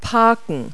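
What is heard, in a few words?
"park", English word; Multi-Lingual Talking Picture Dictionary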